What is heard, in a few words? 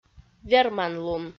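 "верманлун", Komi, female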